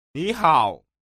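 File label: "nihao", Chinese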